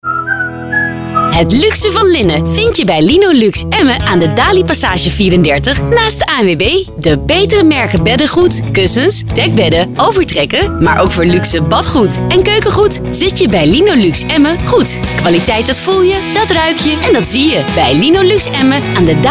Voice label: Commercial; Linolux Emmen 2015; Gigant FM